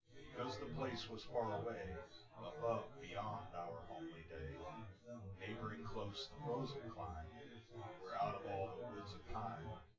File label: male, synth conv, 250ms, 1m; SiSEC10; underdetermined